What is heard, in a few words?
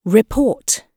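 "report", British English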